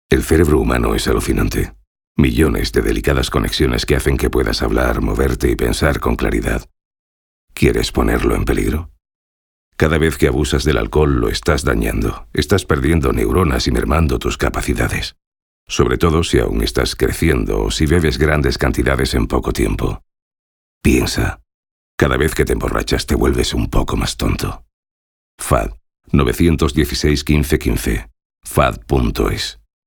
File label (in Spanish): Campañas Cuña; Emborrachar tonto